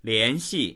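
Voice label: "lian2xi4", Mandarin Chinese; 联系; 連絡する、結びつける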